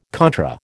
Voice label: "contra", American